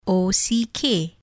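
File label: ock spell, English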